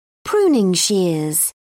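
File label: "pruning shears", British English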